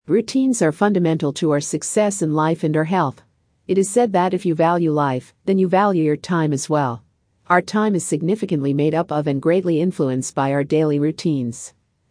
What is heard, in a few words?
Voice Female